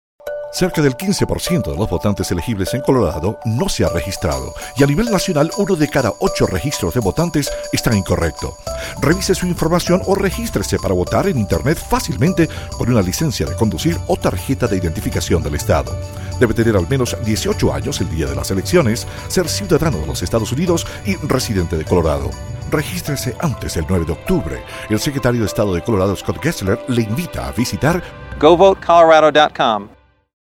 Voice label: Voter Reg Radio Ad Spanish